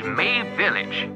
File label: Mabe Village Pronunciation; zeldawiki